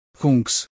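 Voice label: "kungs" Latvian; Baltic; LV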